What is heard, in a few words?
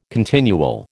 "continual", American